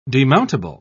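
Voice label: "demountable", British English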